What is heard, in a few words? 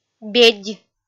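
"бедь", Komi-Zyrian, female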